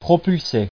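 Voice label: "propulser", French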